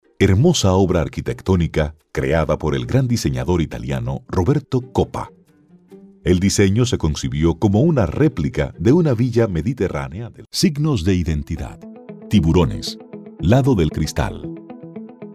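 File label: Spanish Americas, male, voice over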